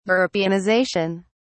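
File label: "europeanization", British English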